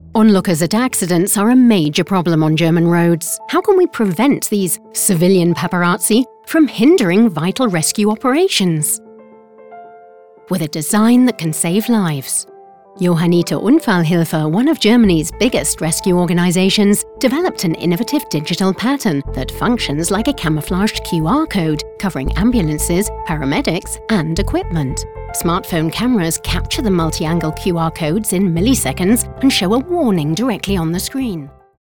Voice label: Voice Artist CORPORATE VIDEO